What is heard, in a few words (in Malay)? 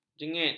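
"jengit", Malay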